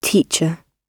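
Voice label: "teacher" spoken, British English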